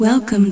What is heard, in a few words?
welcome, feminines